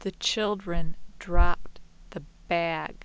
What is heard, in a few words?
clear quiet